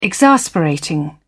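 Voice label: "exasperating", British English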